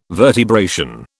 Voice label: "vertebration", British